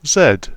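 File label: "Z", British English; tuxpaint-stamps; symbols; alphabets; uppercase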